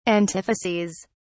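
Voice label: "antitheses", British English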